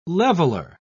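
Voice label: "leveler", British English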